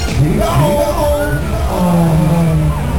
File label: Here we go! aaah; Kermis Kassa Diversen